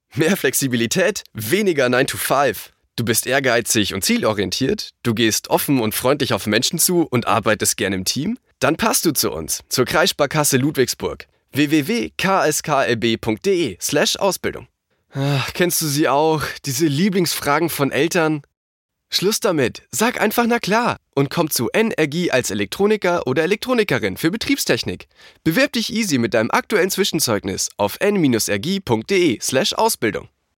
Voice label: german corporate